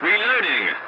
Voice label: voice message reloading, english; dialogs; War Thunder

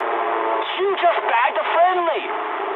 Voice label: eng player killed ally mood high, speech eng

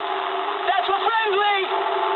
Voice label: eng player friendly fire mood low, speech eng; War Thunder

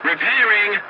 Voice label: voice message repairing, english; War Thunder

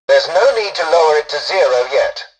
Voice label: tutorial dialogue, english; War Thunder

